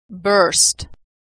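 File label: "burst", American English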